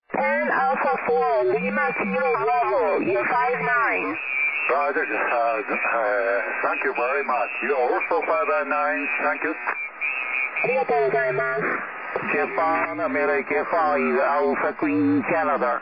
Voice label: 21.238 mhz ssb